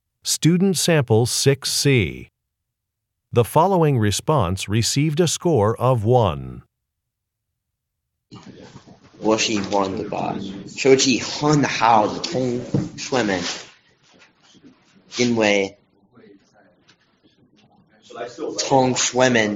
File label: chinese conversation